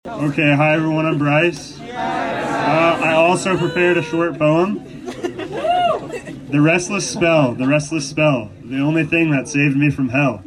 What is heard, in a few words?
poetry